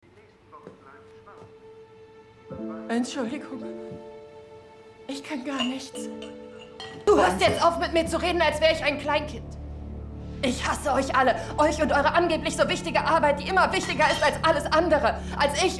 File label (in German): synchron serie deutsch